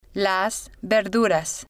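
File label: "las verduras", Spanish, female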